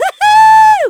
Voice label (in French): fem